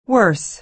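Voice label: "worse", American English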